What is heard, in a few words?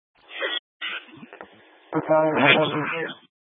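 Incident Tac 1, Scanner audio recording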